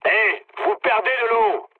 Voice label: damaged water mood low, speech fr; War Thunder